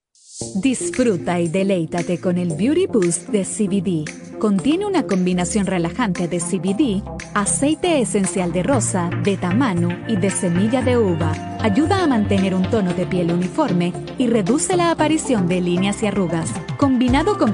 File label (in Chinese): 西班牙语女 外语 小语种